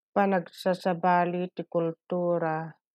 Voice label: Ilocano